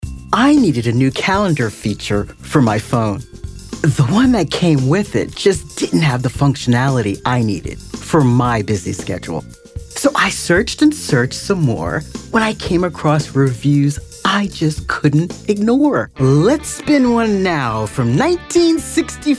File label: Commercial